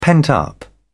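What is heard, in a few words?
"pent-up", English, British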